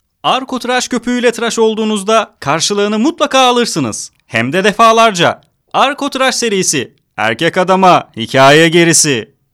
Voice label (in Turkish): REKLAM